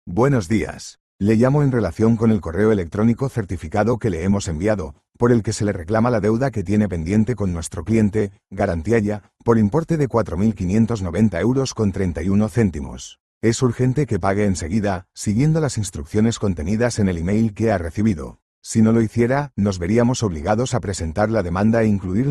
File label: audio call